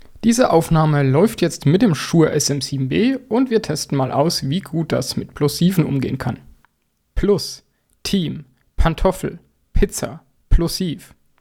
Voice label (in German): shure sm7b plosive